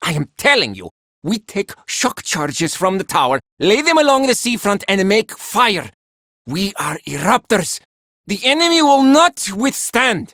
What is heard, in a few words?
Villagers Male, Cetus Invasion